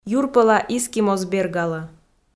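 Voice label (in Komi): "юрпӧла изки моз бергалӧ" Komi, female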